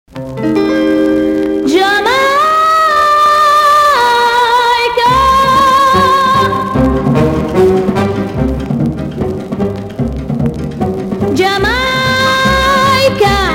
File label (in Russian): детский голос